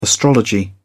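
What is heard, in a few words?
"astrology", British English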